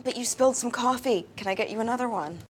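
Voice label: apologizing, American English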